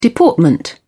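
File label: "deportment", English, British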